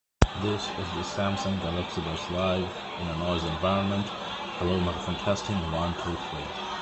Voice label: Noisy; Buds live